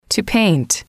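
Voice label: "to paint", English, female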